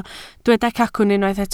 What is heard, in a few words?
"cacwn", Welsh